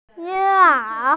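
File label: "ying1er2", Mandarin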